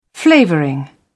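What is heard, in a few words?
"flavouring", British English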